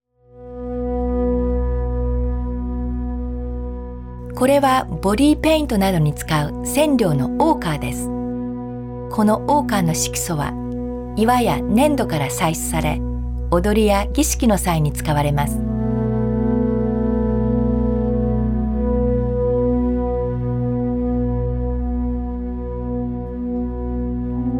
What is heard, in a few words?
Japanese; Ochre Demonstration